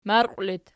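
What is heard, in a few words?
"marqiw" locative, Archi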